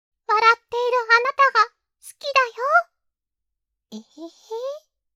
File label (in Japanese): 励ましボイス